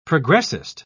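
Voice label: "progressist", British English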